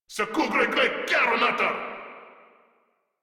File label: Attack, Grineer Male; Warframe